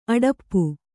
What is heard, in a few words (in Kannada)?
"ಅಡಪ್ಪು", Kannada